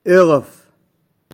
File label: "Eghaf", Touareg; Tete